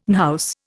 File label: "unhouse", Indian